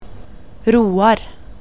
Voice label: roar, norwegian